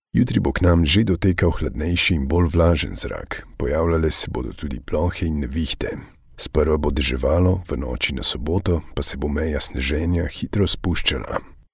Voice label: male slovenian commercial; devine voices